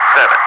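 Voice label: "7", English speech, Wingman Digits; DCS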